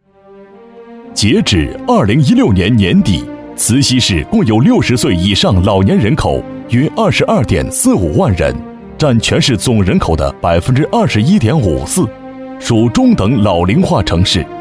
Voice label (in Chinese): 男 公益; 慈溪福利院